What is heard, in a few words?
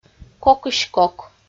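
"кокысь-кок" Komi, female; audio dict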